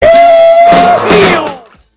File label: He Yump Yee Haw